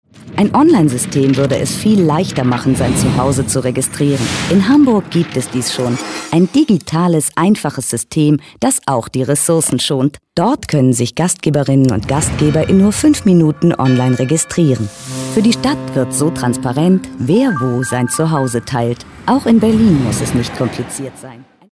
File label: german explainer videos